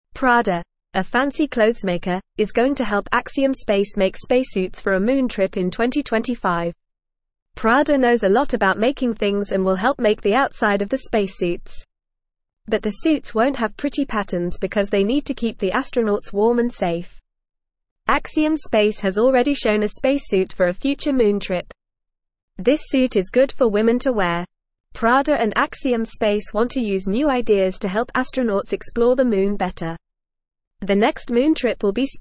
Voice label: English Newsroom Beginner FAST Reading; Prada Helps Make Space Suits for Moon Trip